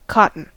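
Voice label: "cotton", US English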